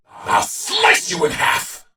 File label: Attack, en; Warframe; Battle; Dax; DaxKnight